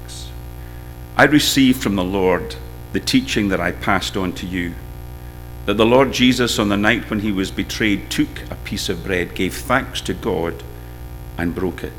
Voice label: read; maundy